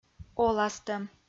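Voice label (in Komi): "оластӧм", Komi, female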